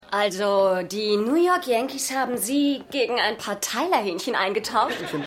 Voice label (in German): Barfrau, Stimmproben; Seinfeld